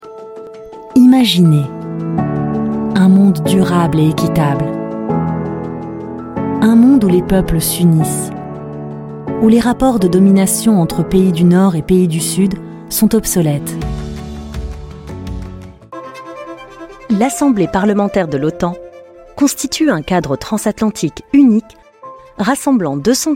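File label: corporate French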